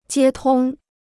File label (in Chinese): "接通", Chinese